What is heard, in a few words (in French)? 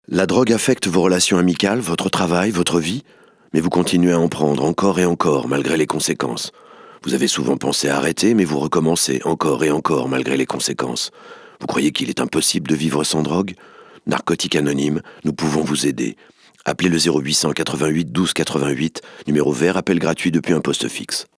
Homme